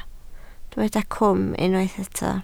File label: "cwm", Welsh